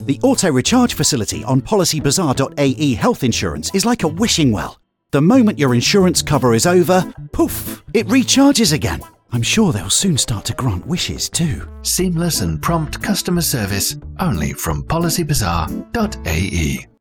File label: ENG mix MVO, radio spot; PB Health spot